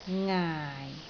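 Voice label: "ngaai4", Cantonese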